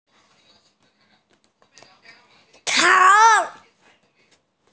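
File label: "chong2", Mandarin Chinese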